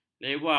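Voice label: "lewa", Malay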